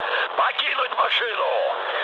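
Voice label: Russian bailout mood med, speech; War Thunder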